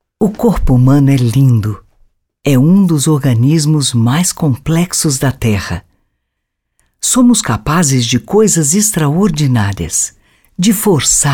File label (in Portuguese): NARRATIVA DOCUMENTÁRIO; DISCOVERY CORPO HUMANO